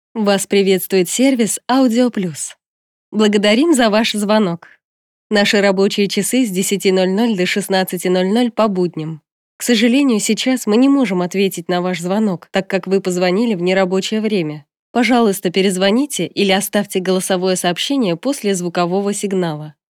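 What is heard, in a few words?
female IVR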